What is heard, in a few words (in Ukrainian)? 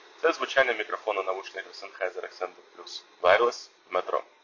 sennheiser accentum plus wireless v metropoliteni; В метрополiтенi; Sennheiser Accentum Plus – це бездротові повнорозмірні навушники